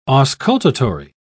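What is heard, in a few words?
"auscultatory", British English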